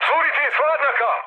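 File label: Serbian damaged water mood med; speech; War Thunder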